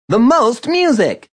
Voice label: Most Music, Male; Radio; VoiceBox